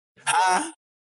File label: ha adlib